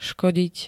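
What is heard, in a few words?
"škodiť", Slovak; fajčenie š-í (zdraviu)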